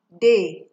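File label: "chukuchuku", Nigerian Pidgin, female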